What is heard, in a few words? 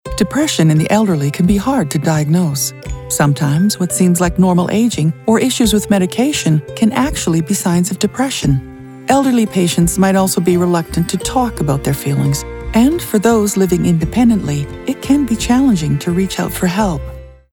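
Medical Voiceover, female voice; Depression spot; COMPASSION; reassuring; trustworthy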